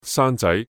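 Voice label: "山仔", Cantonese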